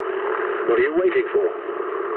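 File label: English attack position mood low; War Thunder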